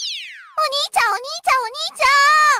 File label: onii chan sound effect notification